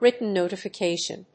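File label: "written notification", English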